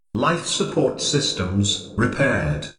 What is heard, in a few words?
Narrator Voice life Support Systems Repaired, Male AI; Narrator Voice Pack 2